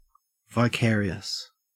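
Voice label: "vicarious", Australian English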